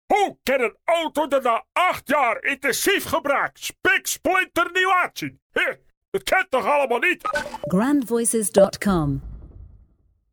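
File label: accent male AMSTERDAMS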